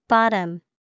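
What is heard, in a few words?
"bottom", English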